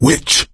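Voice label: warn witch, voice, male; Left 4 Dead 2; coach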